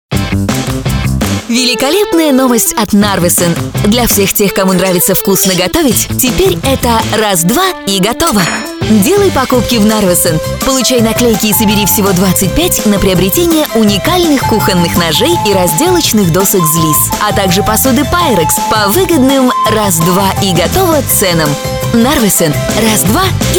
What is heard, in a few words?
russian commercial